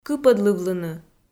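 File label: "кыпӧдлывлыны", Komi, female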